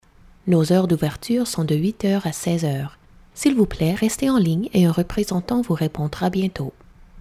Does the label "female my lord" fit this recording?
No